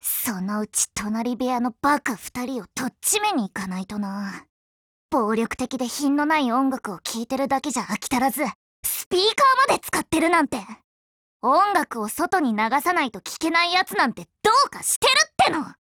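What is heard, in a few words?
DIALOGUE, Japanese; girlsfrontline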